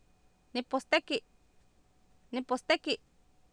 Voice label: "niposteki", Oapan, female; Oapan minimal pairs 2000